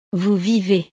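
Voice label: "vous vivez", French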